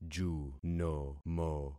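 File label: "junomo", concatenated syllables; Affixing experiment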